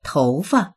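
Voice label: "tou2fa", Mandarin Chinese; tóufa; 头发; 髪の毛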